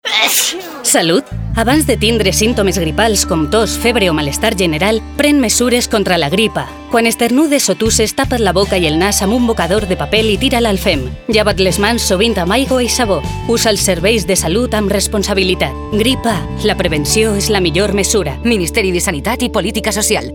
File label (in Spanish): VALENCIANO